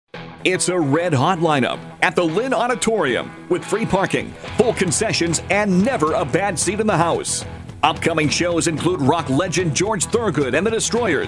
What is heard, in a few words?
Commercial; Lynn Auditorium